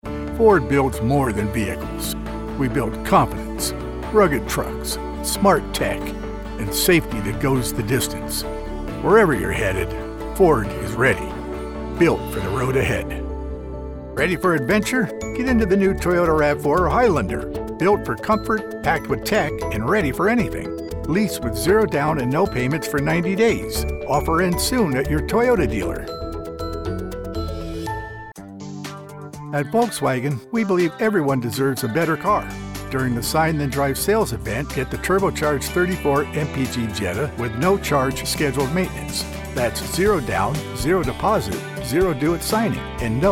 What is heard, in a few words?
Automotive Commercial National